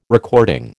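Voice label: "recording", American English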